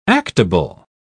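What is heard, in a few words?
"actable", British English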